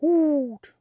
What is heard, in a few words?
Zombie